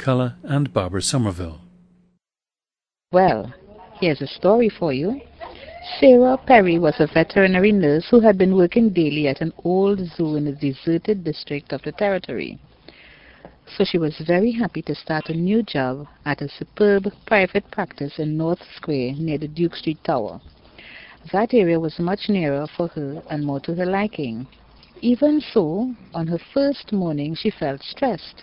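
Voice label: trinidad, dialects of English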